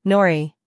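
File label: "humor", British; nori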